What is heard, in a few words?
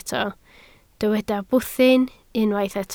"bwthyn", Welsh